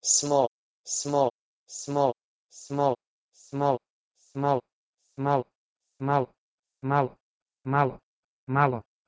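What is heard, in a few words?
Proto-Indo-European "smol" to Bosnian "malo"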